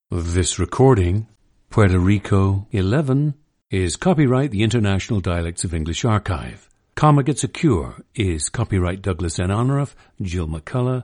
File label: Puerto Rico, International Dialects of English; a 31-year-old man from Bairanquitas